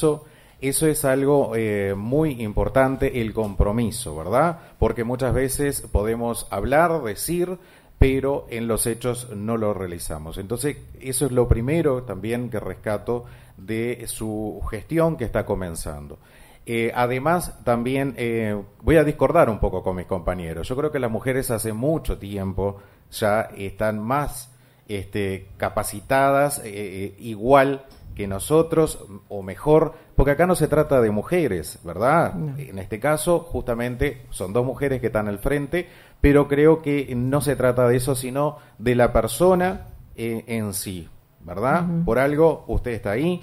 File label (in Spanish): Palabras del Sr Edil